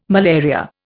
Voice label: "malaria", Indian

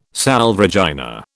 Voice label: "Salve Regina", British

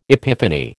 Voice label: "epiphany", American

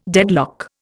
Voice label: "deadlock", Indian

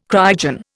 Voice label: "cryogen", Indian

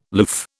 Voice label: "loof", British

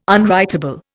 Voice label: "unwritable", Indian

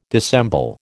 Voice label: "dissemble", American

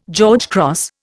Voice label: "George Cross", Indian

